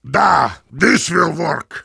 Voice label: positive vocalization; Heavy